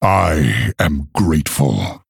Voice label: Male Orc Thanks